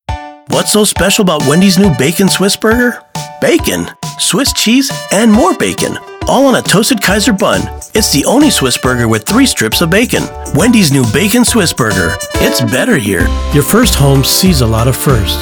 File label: commercial